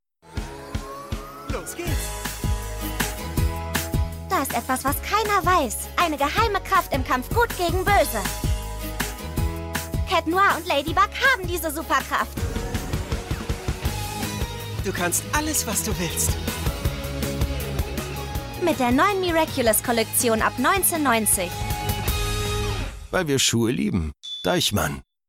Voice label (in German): Werbung TV; Deichmann Miraculous Kollektion